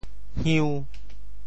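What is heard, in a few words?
"hiu~5", Teochew; 潮州; 未食五月粽，破襦毋甘放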